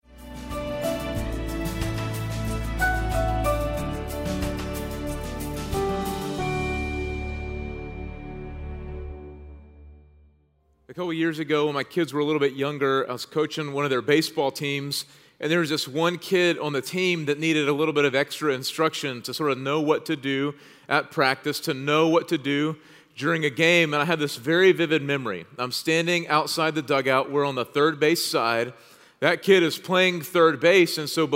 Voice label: Sermon English